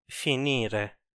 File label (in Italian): "finire", Italian